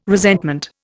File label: "resentment", Indian